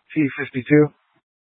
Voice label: Light Rail Emergency, scanner